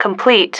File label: "complete", female